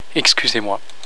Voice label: "excusez", french